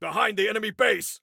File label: Warn Enemy Behind Enemy Base, Male; T2 Male 04